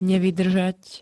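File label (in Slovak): "nevydrzat", Slovak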